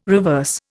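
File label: "reverse", Indian English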